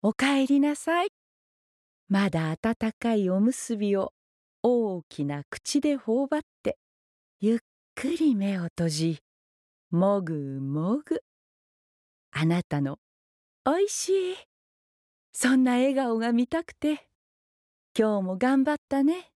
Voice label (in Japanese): ナレーション